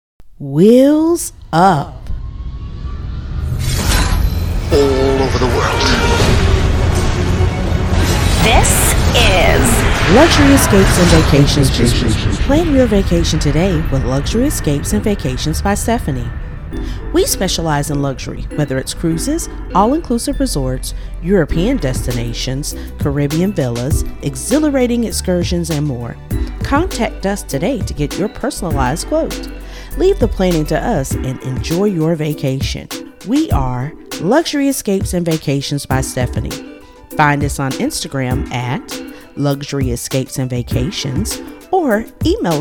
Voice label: Promo; Luxury Escapes